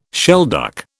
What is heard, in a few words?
"shelduck", British